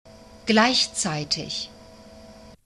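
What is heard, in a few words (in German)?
"gleichzeitig", German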